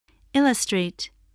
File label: "illustrate", voice spelling